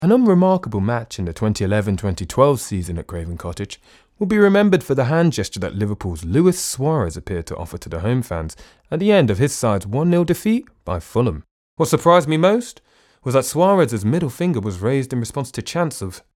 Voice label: Audiobook